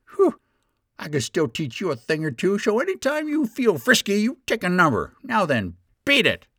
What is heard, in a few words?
cranky old man